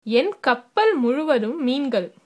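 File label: hovercraft, tamil, female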